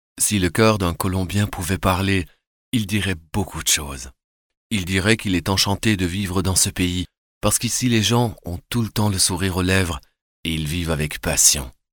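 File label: French male voice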